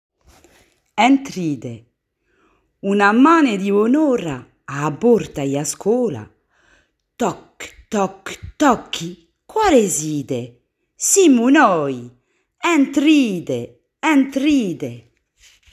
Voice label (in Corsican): Filastrocca